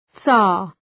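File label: "tsar", English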